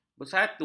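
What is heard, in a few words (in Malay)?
"bersatu", Malay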